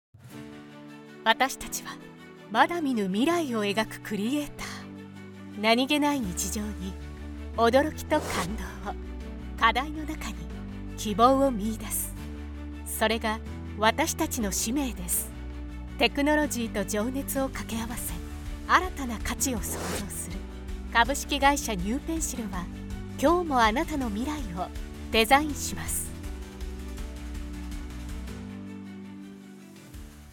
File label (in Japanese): 企業紹介：信頼感 誠実